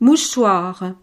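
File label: "mouchoir", French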